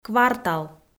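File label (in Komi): "квартал", Komi, female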